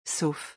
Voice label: "sauf", French